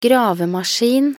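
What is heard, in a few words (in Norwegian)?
"gravemaskin", Norwegian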